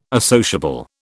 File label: "associable", British